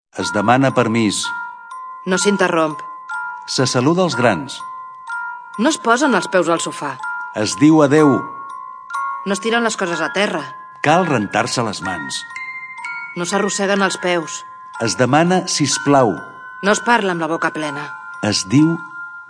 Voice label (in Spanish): Catalán; Campañas Fad